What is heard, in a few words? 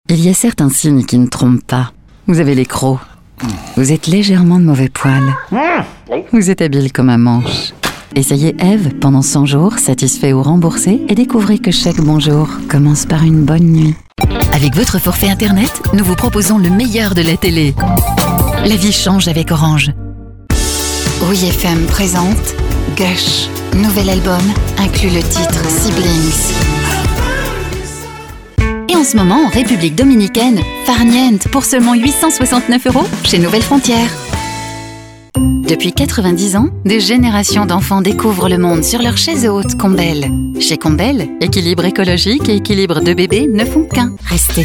French VO